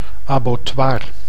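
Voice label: "abbotoir", Dutch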